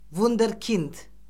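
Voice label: "vunderkind", Azerbaijani; Orfoqrafiya Lüğəti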